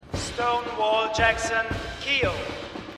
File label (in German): Militaeransager; Shameless